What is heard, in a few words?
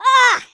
ouch, Geordie; speech